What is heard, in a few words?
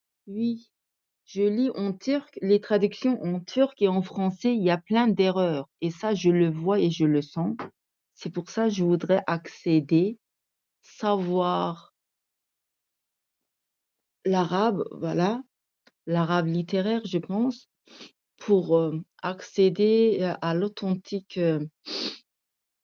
voice message